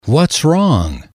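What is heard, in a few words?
whats wrong, male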